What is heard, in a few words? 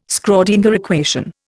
"Schrödinger equation", Indian